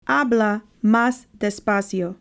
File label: habla mas despacio Speak slowly